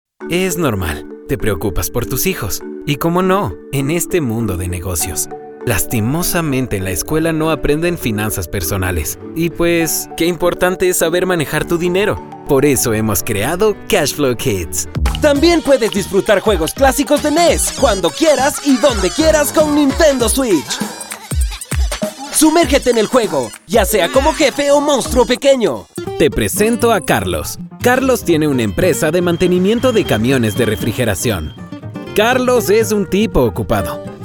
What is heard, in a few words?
spanish latin male narrative